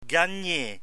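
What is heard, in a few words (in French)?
"gagner", French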